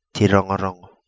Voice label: "Ti Rongorongo", Kapingamarangi